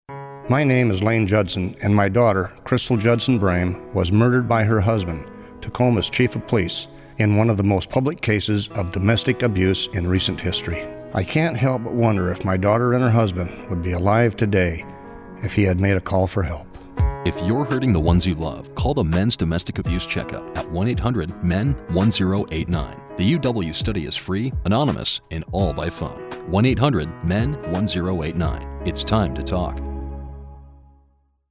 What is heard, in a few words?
Radio Ad